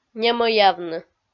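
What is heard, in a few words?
"немӧявны", Komi, female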